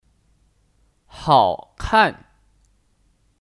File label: "好看", Hǎokàn; Kata sifat; Bagus (dilihat)